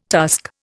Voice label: "tusk", Indian

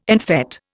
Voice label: "en fête", Indian

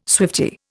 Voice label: "swiftie", Indian

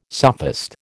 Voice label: "sophist", American

